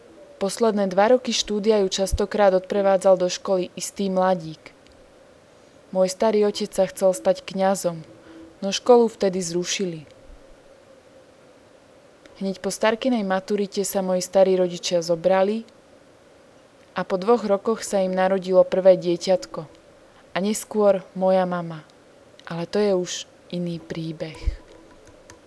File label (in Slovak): Starka hlas; Revelation Sight and Sound SK